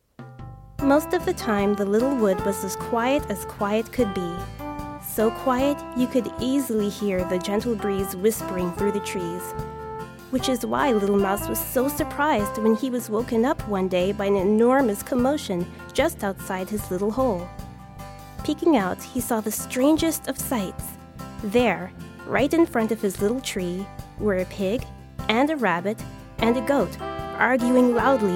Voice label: Childrens Audiobook